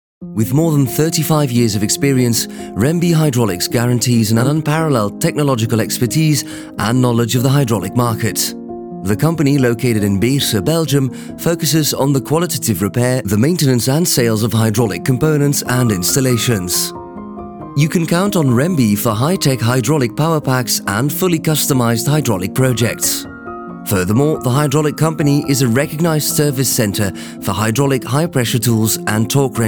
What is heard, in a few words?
English narration